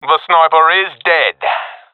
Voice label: i killed sniper, professional epic; vo; Counter Strike Model Pack; CS:GO